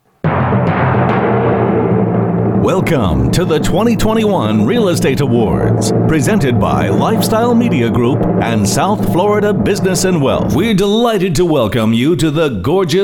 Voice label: english american announcements

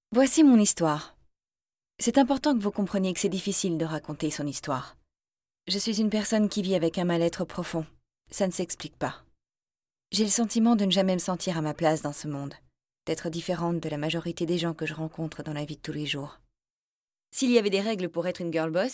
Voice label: french narration

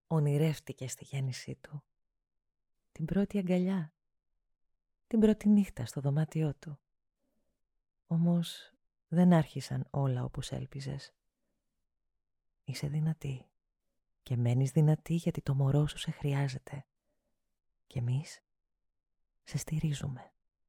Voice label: greek documentaries

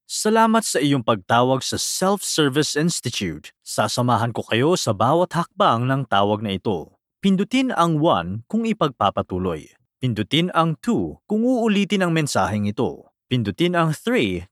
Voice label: tagalog ivr

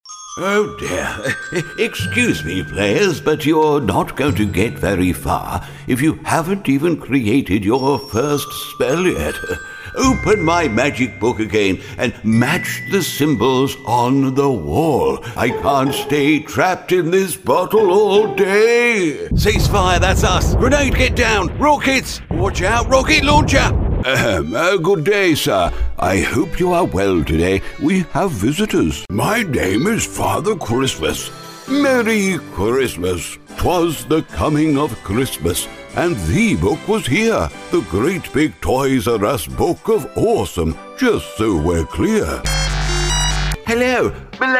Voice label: english british animation; actor de doblaje británico nativo radicado en el Reino Unido

